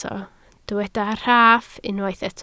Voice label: "rhaff", Welsh